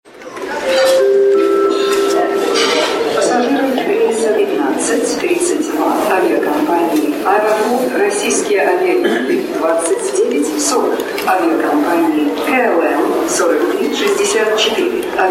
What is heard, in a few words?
announcement; svo